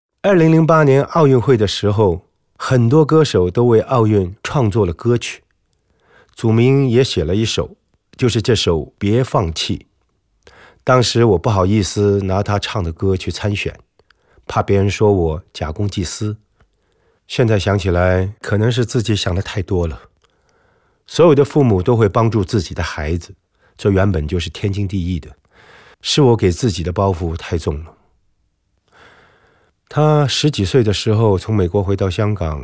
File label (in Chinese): monologue